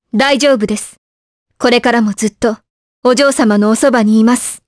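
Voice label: vox dialogue, voices, Japanese; Priscilla; kingsraid; voices heroes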